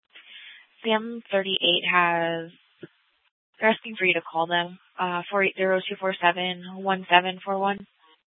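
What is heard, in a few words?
police department Dispatch, scanner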